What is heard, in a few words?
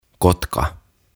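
"Kotka", "Eagle", Finnish, English; OTAVA KONSERNI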